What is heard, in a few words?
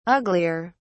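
"uglier", British English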